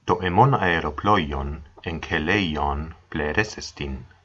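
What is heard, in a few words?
hovercraft, ancient Greek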